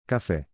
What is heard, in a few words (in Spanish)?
"Cafe" en portugues